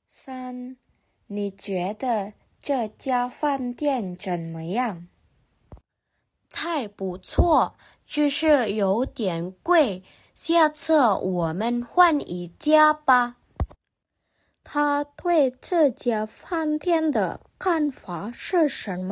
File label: Mandarin; mandari placement test hsk3